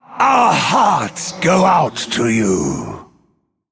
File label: Male Forsaken Play